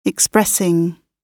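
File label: "expressing", British English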